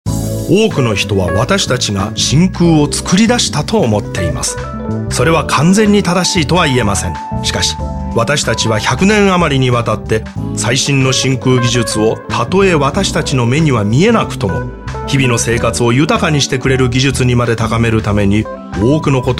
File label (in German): japanisch